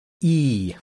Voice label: close front unrounded, IPA sounds

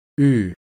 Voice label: near-close near-front rounded; IPA

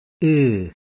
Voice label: close central unrounded; IPA

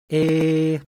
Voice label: high tone close mid front unrounded; IPA

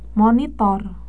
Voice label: "monitor", indonesian

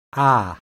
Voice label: open front unrounded, IPA sounds

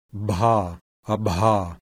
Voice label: breathy-voiced voiced bilabial plosive; IPA

